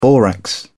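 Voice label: "borax", British English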